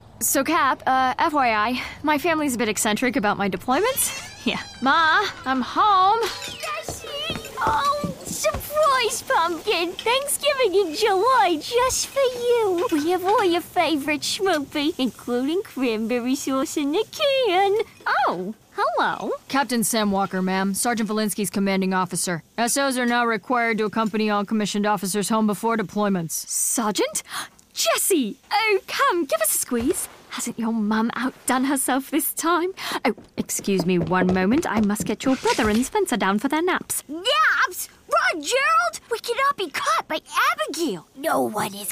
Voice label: Animation